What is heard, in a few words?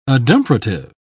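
"adumbrative", British English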